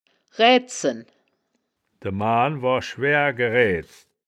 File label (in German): "raeaedzen", wittlicher; das wittlicher woerterbuch; woerterbuch vertonung